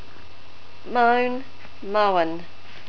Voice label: "moan", NZ English